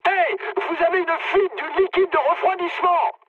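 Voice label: damaged water, mood high, French; War Thunder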